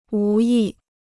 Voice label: "无意", Chinese